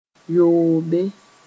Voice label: "yoobe", moore; six

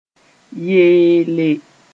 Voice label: "yeele", moore

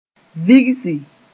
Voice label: "vigsi", moore

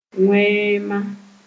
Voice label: "wẽema", Moore

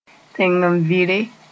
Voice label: "tẽng-n-vɩre", Moore

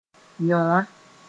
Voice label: "yõwã", moore

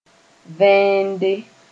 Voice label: "vẽende", moore

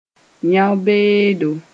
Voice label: "yão-beedo", moore

